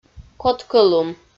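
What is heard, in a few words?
"кодкӧ лун" Komi, female; audio dict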